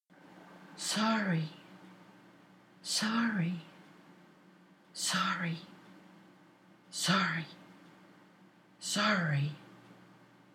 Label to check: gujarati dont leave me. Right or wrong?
Wrong